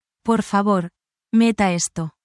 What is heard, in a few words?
spanish, spanish phrases